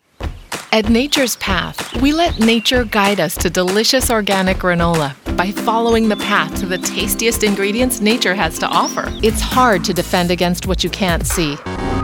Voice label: Commercial